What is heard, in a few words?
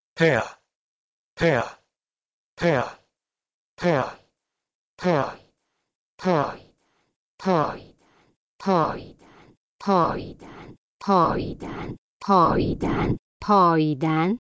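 Proto-Indo-European peh2 to Persian payidan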